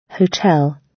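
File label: "hotel", British English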